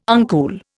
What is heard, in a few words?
"uncool", Indian